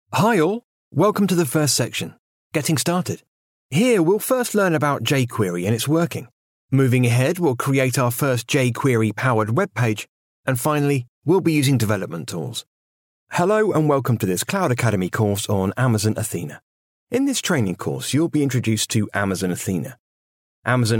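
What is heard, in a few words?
British English, male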